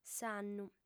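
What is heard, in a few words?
"s'annu", female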